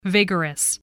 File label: "vigorous", English